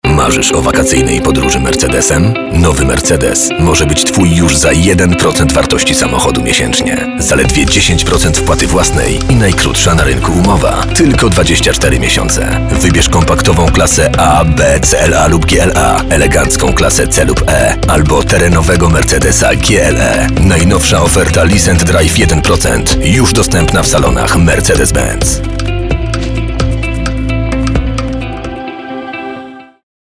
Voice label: Male VO Commercial